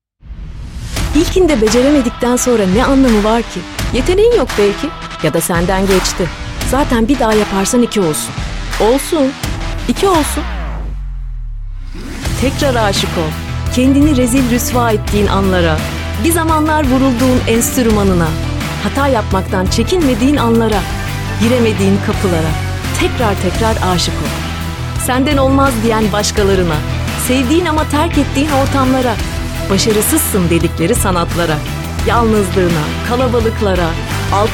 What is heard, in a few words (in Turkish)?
reklam ilgi çekici enerjik; askolsun